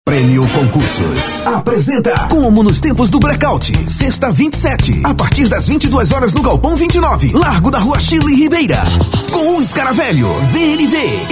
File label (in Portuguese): Locutor, festa